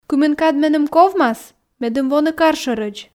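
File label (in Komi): кымын кад меным ковмас медым воны кар шӧрӧдз, Komi, female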